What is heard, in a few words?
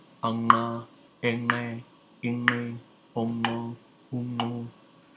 Intervocalic nasal alveolar clicks